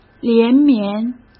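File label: "连绵", Mandarin Chinese; hsk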